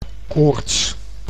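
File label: "koorts", Dutch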